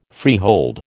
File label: "freehold", American